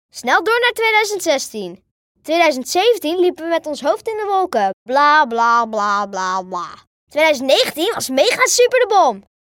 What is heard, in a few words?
enthousiast and cool voice Dutch